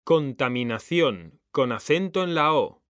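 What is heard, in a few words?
"CONTAMINACIÓN", Spanish; mekanta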